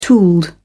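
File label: "tooled", British English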